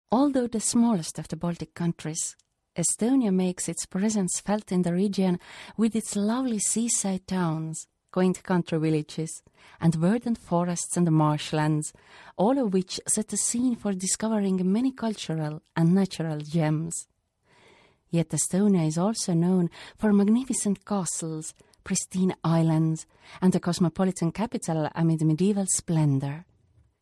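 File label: Estonian, documentary; GoLocalise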